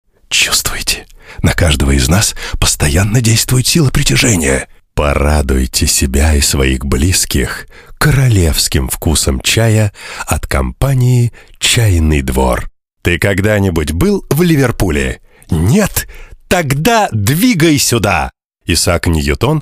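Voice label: voice of the TV channel; golos telekanala Domashnij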